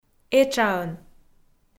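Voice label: "этшаӧн", Komi, female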